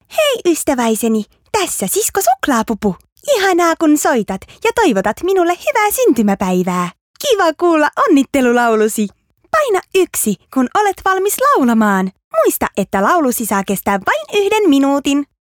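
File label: Finnish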